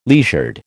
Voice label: "leisured", American